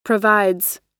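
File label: "provides", US English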